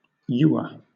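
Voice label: "ewer", British English; pronunciations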